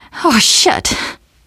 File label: getting revived, teen girl; survivor voice